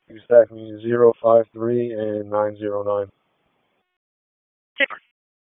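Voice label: Raleigh Police Northeast District Dispatch; wake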